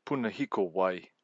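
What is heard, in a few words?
"punahiko wai", Māori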